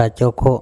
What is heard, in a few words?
"acOkho" side, andamanese